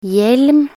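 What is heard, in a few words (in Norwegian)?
"hjelm", Norwegian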